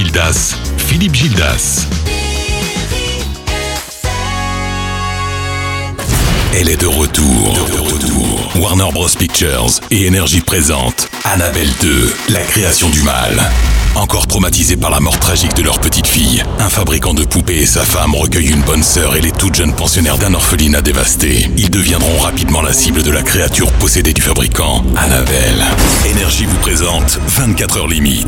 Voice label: Promo Radio